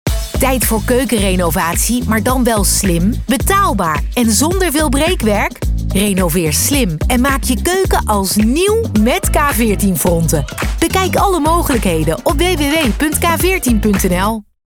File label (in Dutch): radiocommercial muziek